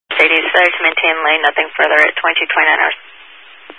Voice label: Highway Patrol, Phoenix Metro East Area, scanner